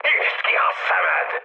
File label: player friendly fire, mood high, speech hun